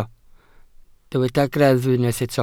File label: "greddf", Welsh